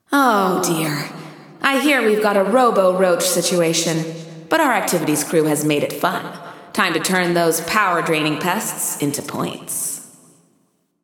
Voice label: Announcing New Challenges; Roaches; GAIA